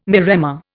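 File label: "maremma", Indian English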